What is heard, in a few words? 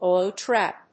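"oil trap", English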